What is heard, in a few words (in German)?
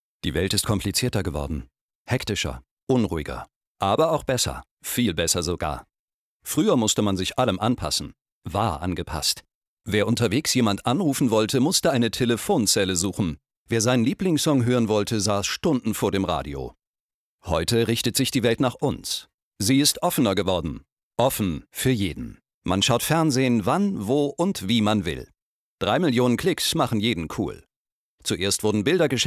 Imagefilm Bank